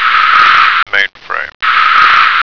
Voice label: "mainframe", American

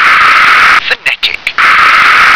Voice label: "phonetic", English

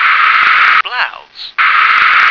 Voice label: "blouse", English

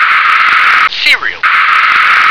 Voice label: "serial", English

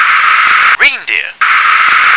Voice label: "reindeer", English

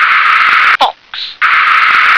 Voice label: "fox", English

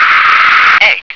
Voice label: "egg", English

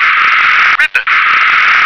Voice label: "ribbon", English

